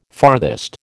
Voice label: "farthest", American

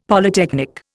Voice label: "Polytechnic", Indian; Dictionary

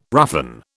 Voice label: "roughen", British

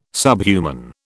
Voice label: "subhuman", British

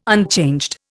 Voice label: "unchanged", Indian

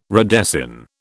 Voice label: "redesign", British

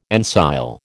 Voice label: "ensile", American

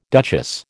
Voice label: "duchess", American

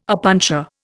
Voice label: "opuntia", Indian

